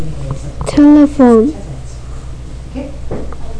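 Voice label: "telephone", spoken word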